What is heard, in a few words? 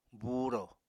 "buuro", Apatani